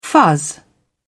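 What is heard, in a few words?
"fuzz", British English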